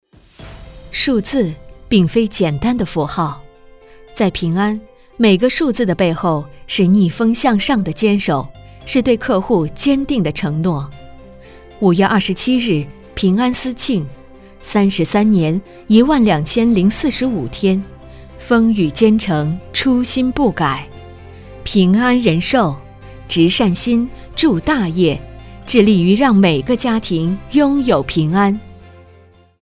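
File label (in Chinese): 宣传片旁白; 平安司庆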